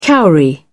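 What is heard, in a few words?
"cowrie", British English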